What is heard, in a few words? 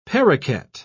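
"parroket", British English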